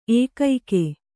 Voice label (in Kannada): "ಏಕೈಕ", Kannada